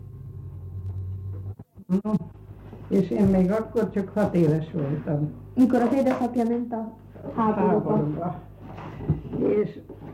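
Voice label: dialect collections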